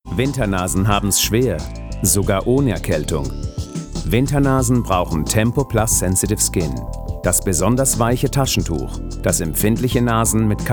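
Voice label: German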